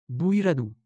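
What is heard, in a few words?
"Boirador", Occitan Languedocien; Prêts pour l’Òc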